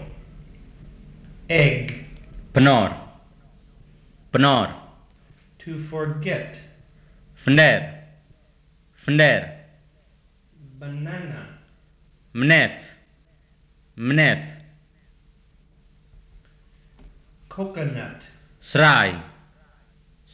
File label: BIAK